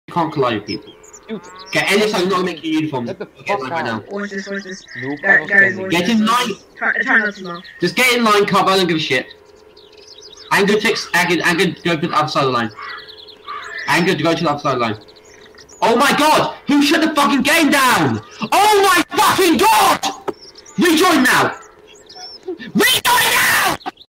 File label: yelling REJOIN NOW